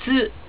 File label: "tsu", Japanese